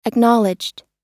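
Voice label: "acknowledged", American English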